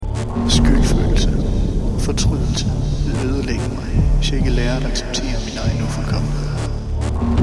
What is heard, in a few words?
danish